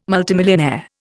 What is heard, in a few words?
"multimillionaire", Indian